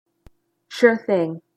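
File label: "sure thing", English pronunciation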